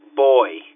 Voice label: boy phone